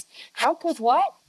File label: Voice Acting, es, F0